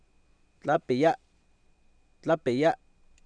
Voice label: "tlApiyA", Oapan, male; Oapan minimal pairs 2000

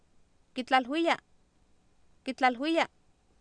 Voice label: "kitlalwiya" Nahuatl, Oapan, female; Oapan minimal pairs 2000

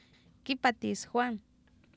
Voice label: kIpatis JuAn, Oapan minimal pairs female; Oapan minimal pairs 1998

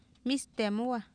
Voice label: "mIisteemOwah", Oapan, female; Oapan minimal pairs 1998

